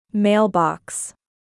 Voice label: "mailbox", English